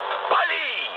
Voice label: attack position, mood med, speech ru; War Thunder